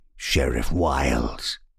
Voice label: voice sheriff wilds; Sheriff Of Nottingham